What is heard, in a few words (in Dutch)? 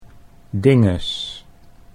"dinges", Dutch